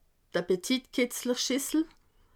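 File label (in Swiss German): "appetit schissel", Alsatian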